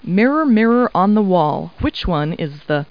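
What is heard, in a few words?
woman; Talking Clip Words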